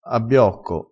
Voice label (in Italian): "abbiocco", Crespinese